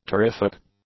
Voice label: "terrific", English; adjectives